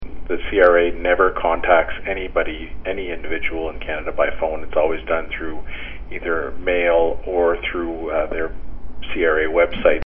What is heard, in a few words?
phone